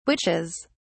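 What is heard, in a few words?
"witches", English, British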